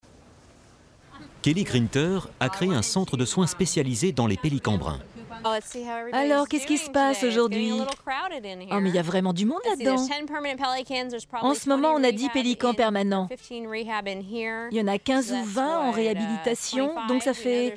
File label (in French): Voice over femme Veterinaire; Le Pelican De Floride